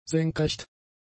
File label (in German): "senkrecht", German; adjectives